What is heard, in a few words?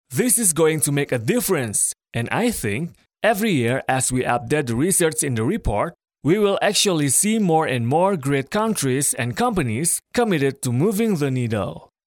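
Indonesian, male, voice over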